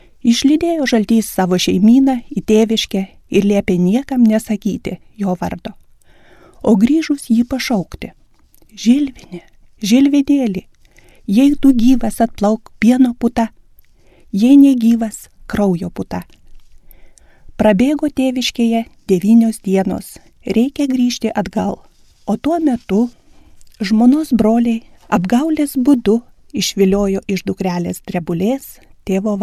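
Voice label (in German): Litauen, weiblich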